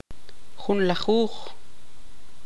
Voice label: tzutujil; once

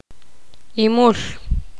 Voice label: poqomam; conejo

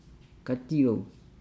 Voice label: "gadiou", Nukuoro; plant sp

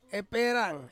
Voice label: "perang", to cut, Ansus

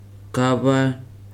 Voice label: "breasts", Weri

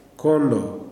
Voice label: "dry", kuman

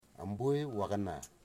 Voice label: "everybody", Wamut

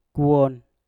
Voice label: "kwon", Mpur; berbaring

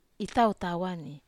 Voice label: "tau tawa", Wamesa

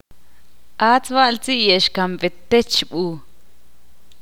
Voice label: ixil; l no lo comi

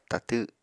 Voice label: "tatw", Apatani

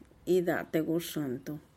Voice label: "ida teguxuntu", tlacochahuaya; ida te guxëntu; (Spanish) vengan a almorzar

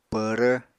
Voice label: "pvrv", Apatani

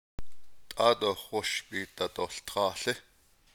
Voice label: navajo; imperative; Don't step in the cactus

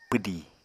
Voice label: "pwdì", Apatani

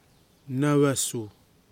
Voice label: "Nawasu", Nafe; tribe name

